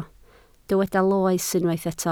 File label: "loes", Welsh